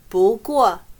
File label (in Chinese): "不过", chino